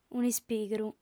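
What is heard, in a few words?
"un'ispigru", lingua sarda, female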